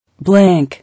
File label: "Blank", English